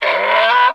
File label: pain; tf; slender; therium